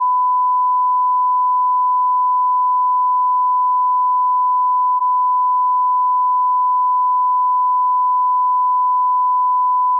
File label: left right channel check